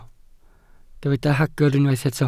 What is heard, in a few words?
"hagr", Welsh